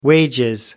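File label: "wages", British English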